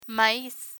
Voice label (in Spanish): "maiz", Spanish; learn spanish